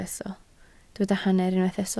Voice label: "hanner", Welsh